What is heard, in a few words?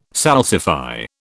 "salsify", British